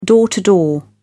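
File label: "door to door", British English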